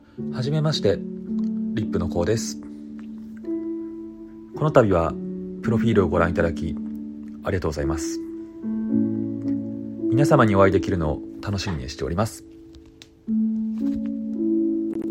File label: voice, therapist